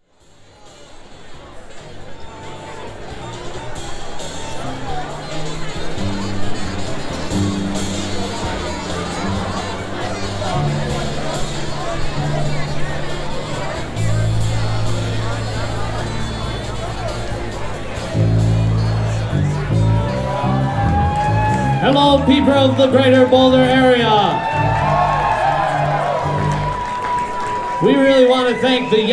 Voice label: tuning banter; Bluegrass